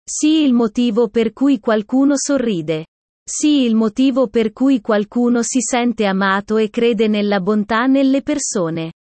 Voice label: Male italian